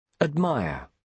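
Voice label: "admire", British English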